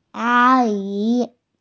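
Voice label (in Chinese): "a1yi2", Mandarin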